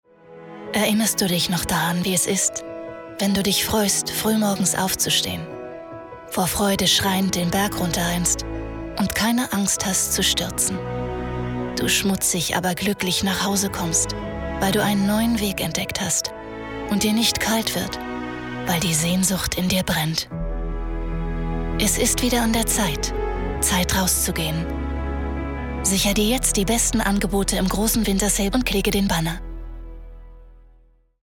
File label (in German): Imagefilm